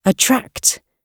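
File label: "attract" British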